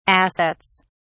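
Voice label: "assets", British English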